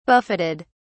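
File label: "buffeted", British English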